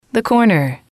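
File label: "the corner", English, female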